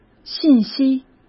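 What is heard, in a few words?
"信息", Mandarin Chinese; hsk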